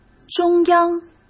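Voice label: "中央", Mandarin Chinese; hsk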